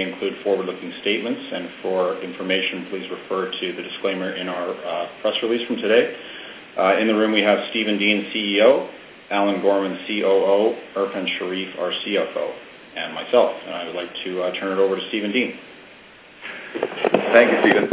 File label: conference call